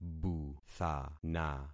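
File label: "buthana", concatenated syllables; Affixing experiment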